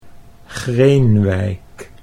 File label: "Greenwijk", Dutch